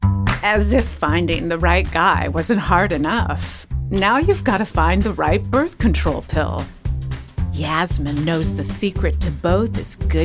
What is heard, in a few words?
Commercial